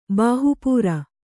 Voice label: "ಬಾಹಪುರಿ", kannada